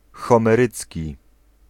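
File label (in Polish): "homerycki", Polish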